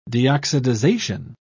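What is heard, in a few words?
"deoxidization", British English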